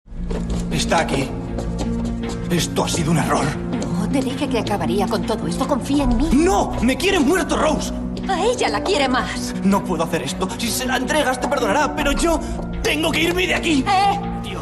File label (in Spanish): Muestra Doblaje live action; AM ESCUELA